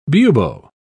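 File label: "bubo", English, British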